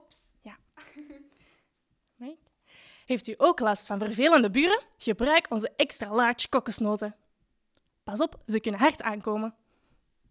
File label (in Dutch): Reklame